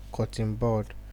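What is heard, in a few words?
"cotton bud", Nigerian Pidgin, male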